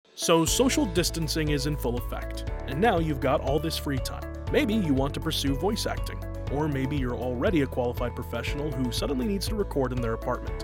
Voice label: Corporate Narration